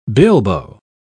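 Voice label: "bilbo", British English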